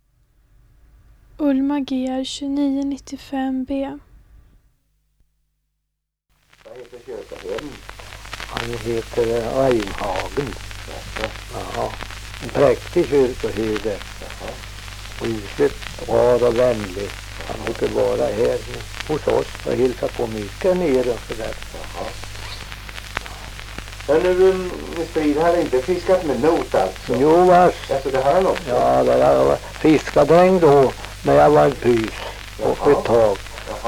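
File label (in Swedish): Gryt Östergötland, dialekter; Folkminnen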